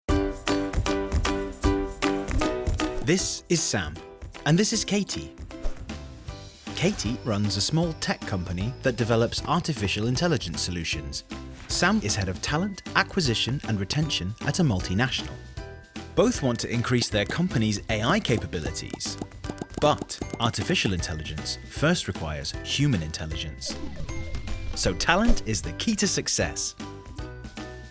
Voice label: Corporate Home Studio RP Accent